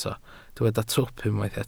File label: "twp", Welsh